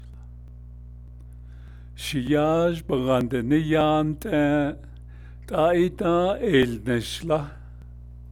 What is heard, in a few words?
I went to my son's house but he had already, Navajo